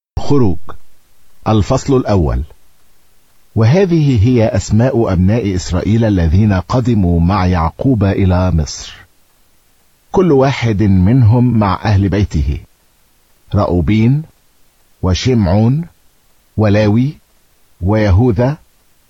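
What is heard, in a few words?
Arabic, bible reading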